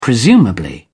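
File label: "presumably", British English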